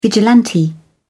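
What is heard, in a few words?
"vigilante", British English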